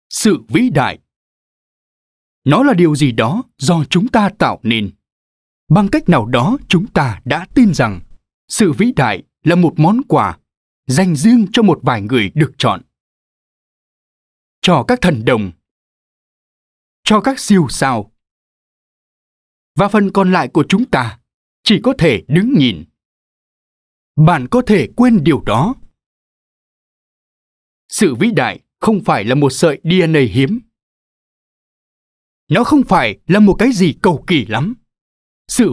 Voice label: North Male, Vietnamese; VNVO; Innovex Main Movie

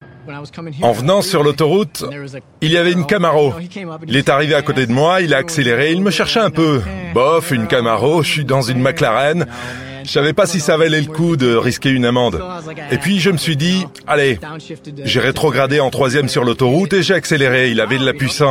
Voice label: French; Netflix-McLaren

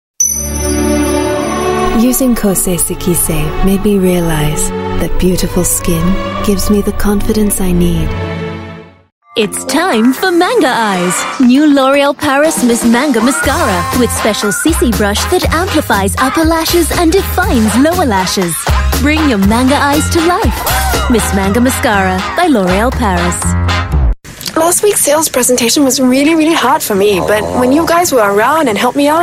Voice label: Asian English TVC VO

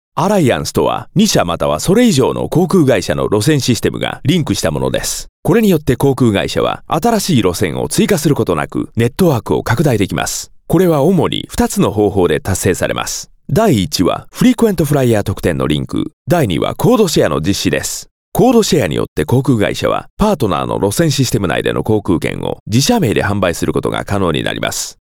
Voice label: Japanese Male Commercial

